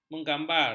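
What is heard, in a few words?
"menggambar", Malay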